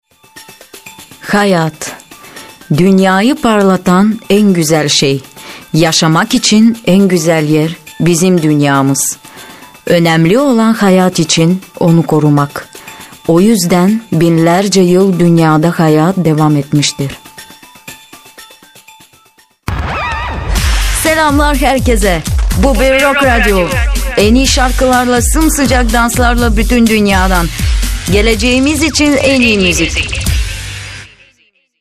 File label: turkish f commercial; voice demos